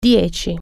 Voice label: "ten", Italian

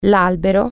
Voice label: "tree", Italian

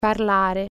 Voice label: "speak", Italian

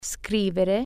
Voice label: "write", Italian

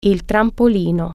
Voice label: "trampoline", Italian

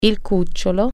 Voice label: "puppy", Italian

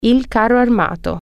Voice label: "tank", Italian

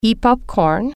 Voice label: "popcorn", Italian

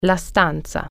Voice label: "room", Italian

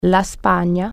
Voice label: "spain", Italian

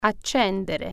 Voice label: "switch on", Italian

